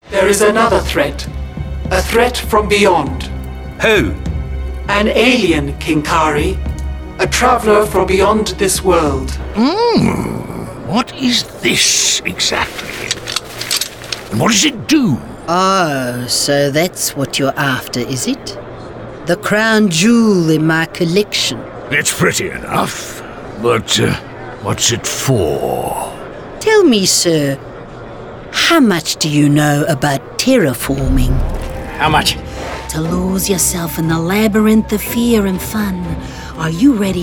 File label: Voiceover Animation; Chicago